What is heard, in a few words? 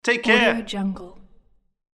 male voice saying take care